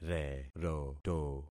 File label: "reroto", concat syllables; Affixing experiment